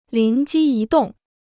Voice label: "灵机一动", Chinese

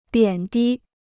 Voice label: "贬低", Chinese

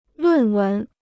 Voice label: "论文", Chinese

